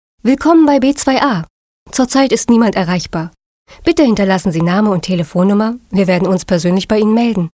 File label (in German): Abansage